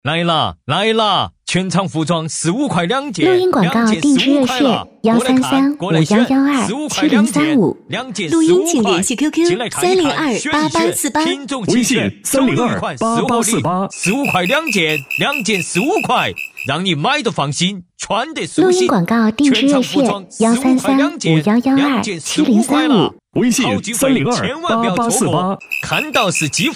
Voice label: 四川话

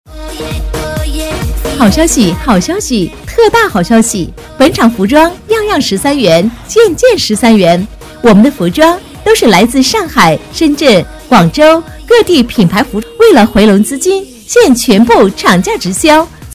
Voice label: 广告 服装

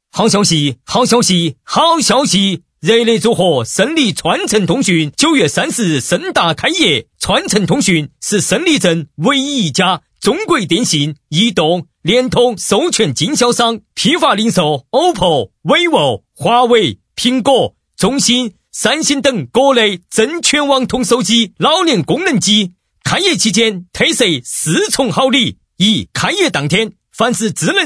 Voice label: 四川话 激情 通讯; 胜利川成

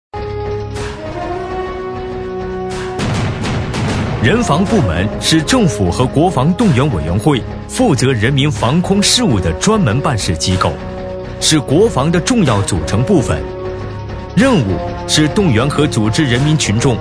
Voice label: 宣传大气防控

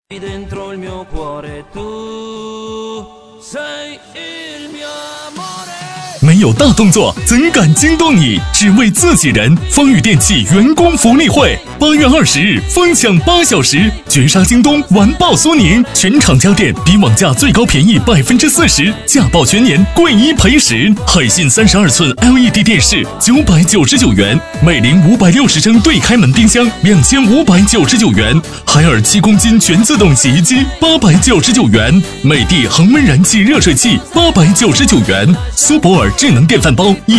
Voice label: 电器促销; 缩混

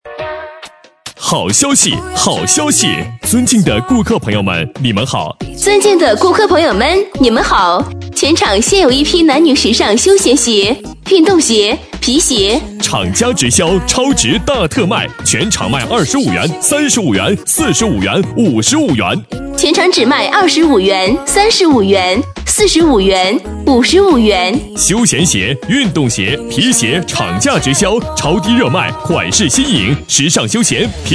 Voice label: 鞋促销

